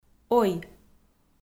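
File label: "ой", Komi, female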